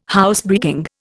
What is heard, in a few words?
"Housebreaking", Indian